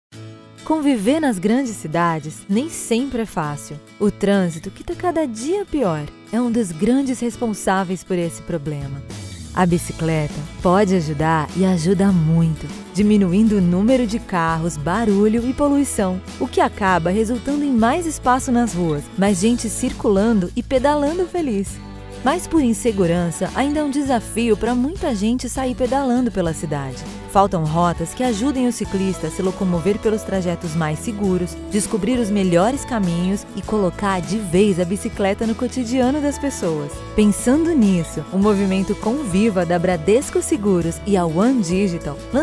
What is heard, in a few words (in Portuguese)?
Locução; ConvivaBike